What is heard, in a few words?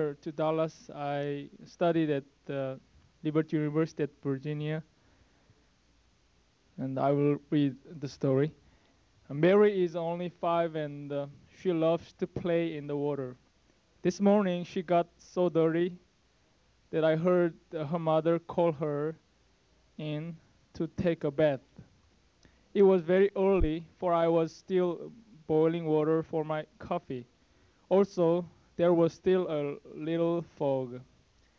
Korean